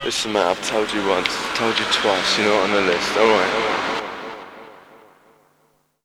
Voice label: Bouncer Vox, Jungle